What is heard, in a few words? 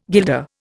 "guilder", Indian